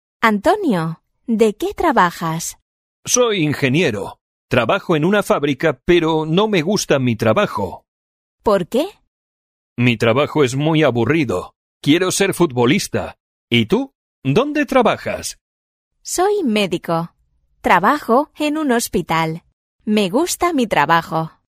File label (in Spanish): leccion dialogo